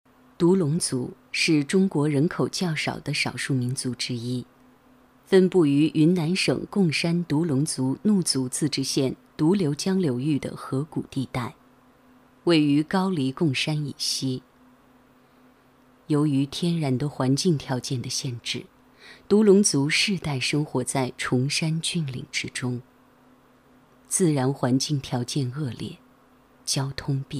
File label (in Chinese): 女 专题类 娓娓道来风格; 独龙族